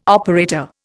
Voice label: "operator", Indian

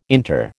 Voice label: "inter", American

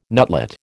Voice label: "nutlet", American

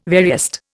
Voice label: "veriest", Indian

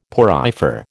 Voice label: "porifer", American

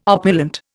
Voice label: "opulent", Indian

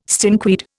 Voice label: "stinkweed", Indian

